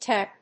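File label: "Tep", English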